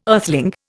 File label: "earthling", Indian